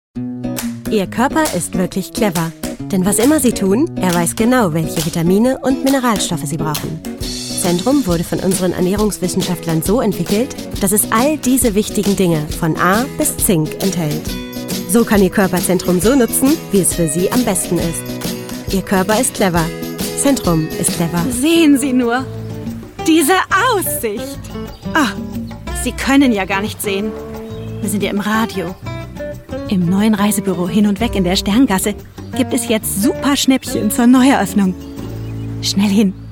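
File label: German, Commercial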